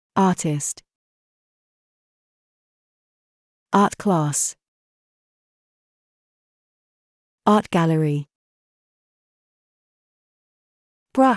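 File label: British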